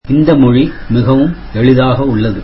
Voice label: tamil this language is very easy